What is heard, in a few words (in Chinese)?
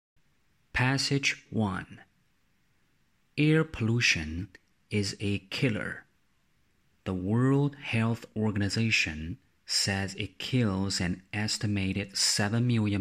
英语录音小样 口译片段